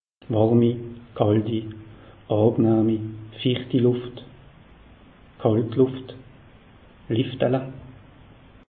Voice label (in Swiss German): "warmi", alsace; meteo